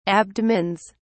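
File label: "abdomens", British English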